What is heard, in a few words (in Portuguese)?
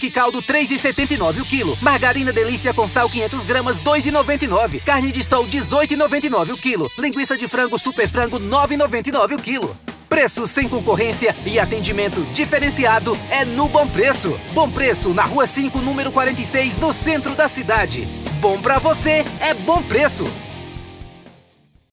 SPOT VAREJO SUPERMERCADO